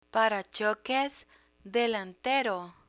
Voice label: "parachoques delantero", Spanish; picture dictionary

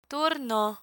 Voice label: "turno", Spanish

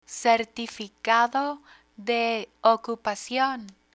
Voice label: "certificado de ocupacion", Spanish; real estate terminology; learn spanish

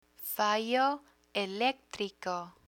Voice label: "fallo electrico", Spanish

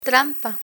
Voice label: "trampa", Spanish

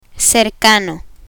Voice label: "cercano", Spanish; interests hobbies; learn spanish

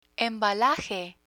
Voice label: "embalaje", Spanish

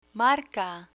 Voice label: "marca", Spanish; learn spanish

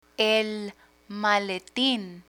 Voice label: "el maletin", Spanish; learn spanish

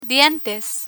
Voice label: "dientes", Spanish

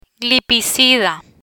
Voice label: "Glipizida", Spanish

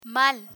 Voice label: "mal", Spanish; learn spanish

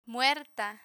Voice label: "muerta", Spanish; emergency vocabulary; learn spanish

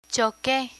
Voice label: "choque", Spanish; picture dictionary; learn spanish

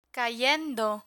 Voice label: "cayendo", Spanish

